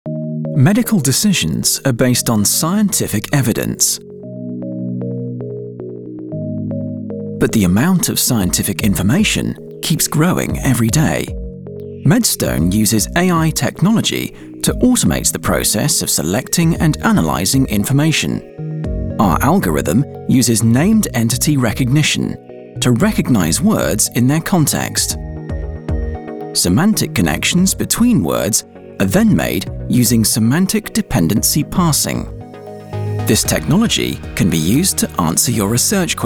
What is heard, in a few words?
pharmaceutical corporate explainer video young UK male voice over; Medstone AI